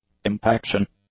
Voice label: "impaction", English; nouns